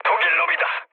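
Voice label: Korean enemy detected mood med, speech; War Thunder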